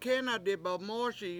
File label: "kEna dibemOOSi", washo